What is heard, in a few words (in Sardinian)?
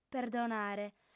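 "perdonare", female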